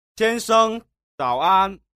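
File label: "xiansheng, zaoan", Mandarin Chinese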